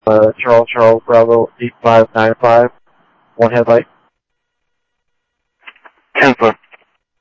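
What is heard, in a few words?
Sheriff Lake Patrol, scanner audio trunk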